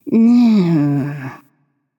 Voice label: Thinking; smite; izanami